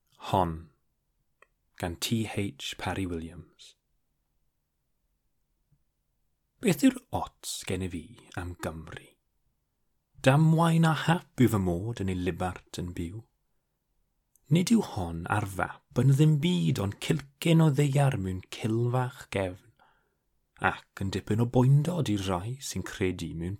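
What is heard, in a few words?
Welsh, male, dry narration; voice-over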